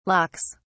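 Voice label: "locks", English, British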